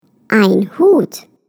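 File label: "hat", German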